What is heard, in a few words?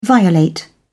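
"violate", English, British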